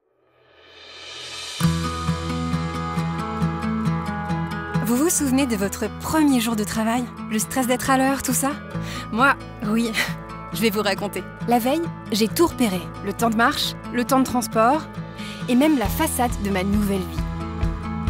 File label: SPOT WEB; Keolis